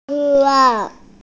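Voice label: "qing wa", Mandarin Chinese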